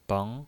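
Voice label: "beng", Mandarin Chinese, second tone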